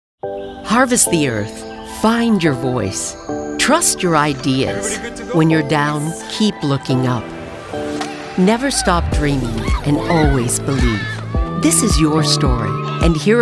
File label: Commercial